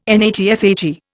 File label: "NATFHE", Indian